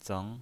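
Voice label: "zeng" Mandarin Chinese pinyin voice, second tone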